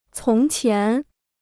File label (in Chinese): "从前", Chinese